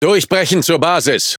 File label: Speech Quick Commands Enemy Base, DE; World Of Warships